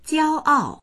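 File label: "jiao1ao4", Chinese; 骄傲; 傲慢な、誇りとする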